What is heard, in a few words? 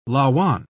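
"lauan", British English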